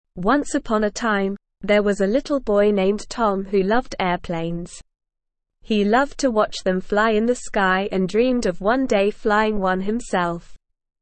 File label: ESL Short Stories for Kids SLOW reading; The Magic Toy Plane